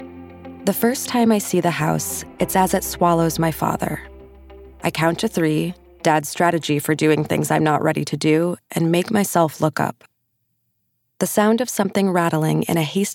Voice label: Audiobook